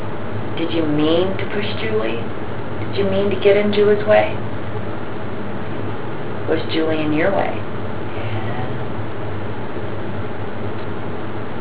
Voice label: answers yes very creepy